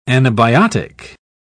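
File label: "anabiotic", British English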